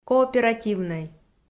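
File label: "кооперативнӧй", Komi, female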